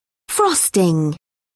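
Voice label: "frosting", British English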